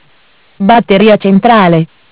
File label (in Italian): "Batteria Centrale", MESSAGGI VOCALI; Bentel; BLE320; Audio BGS220